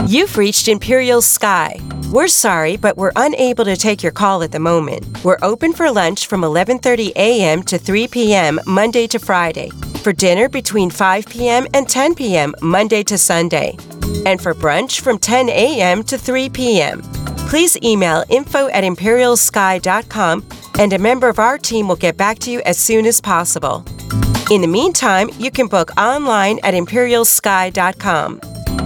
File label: Message on hold